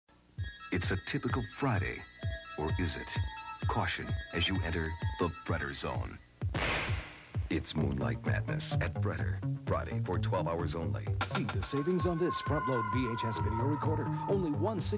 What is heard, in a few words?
Electronics Commercial 1980s; Retro Fretter